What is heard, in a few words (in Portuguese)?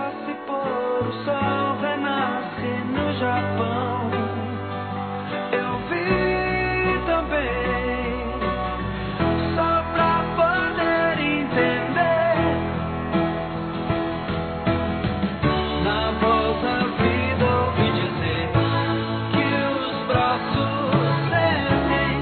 Ano Novo Filha Masculino; Telemensagens; Natal e Ano novo